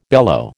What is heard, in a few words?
"bellow", American